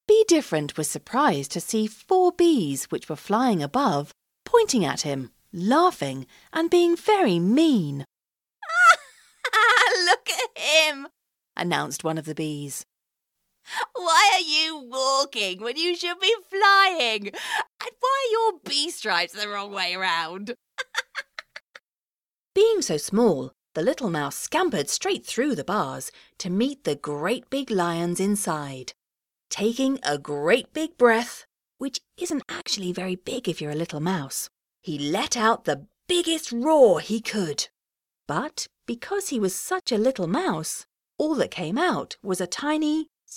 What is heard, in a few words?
Childrens Audiobooks